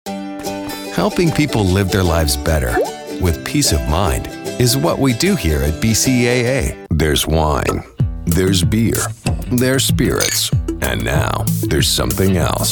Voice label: Commercial Men, male vo; redmanagement

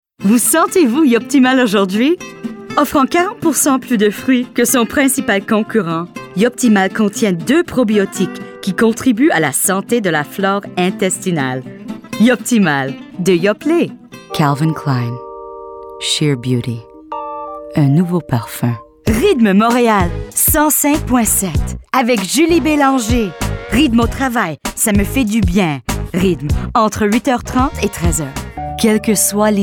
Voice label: French

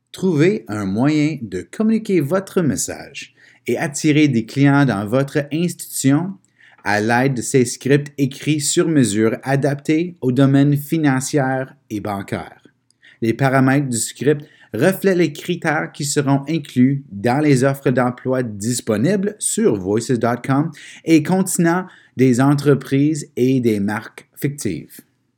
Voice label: Quebecois; trisko

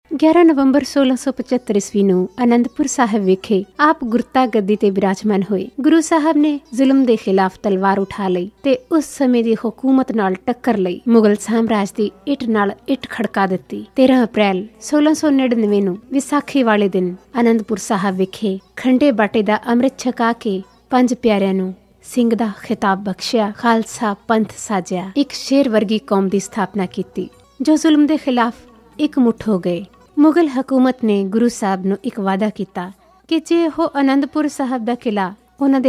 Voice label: Punjabi, VO; translink

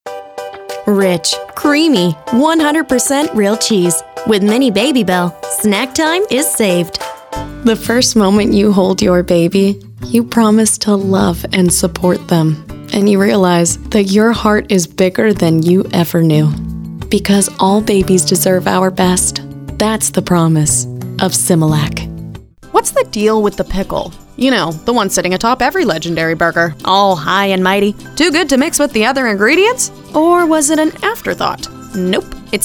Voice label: Voice, female VO; home studio

